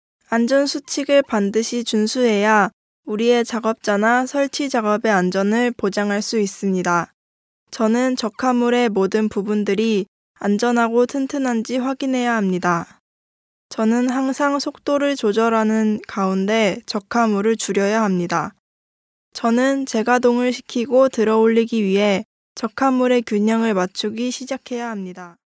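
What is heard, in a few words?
Korean, female; Professionelle Sprecher und Sprecherinnen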